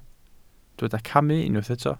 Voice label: "camu", welsh

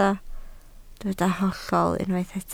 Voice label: "hollol", Welsh

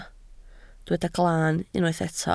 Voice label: "glaan", Welsh

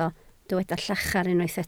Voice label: "llachar", Welsh

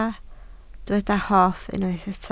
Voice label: "hoff", Welsh

